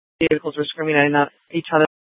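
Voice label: police dispatch, Scottsdale PD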